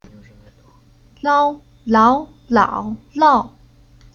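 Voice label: "Lao", Chinese syllable; Уроки фонетического блока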